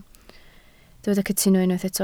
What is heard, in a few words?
"cytuno", Welsh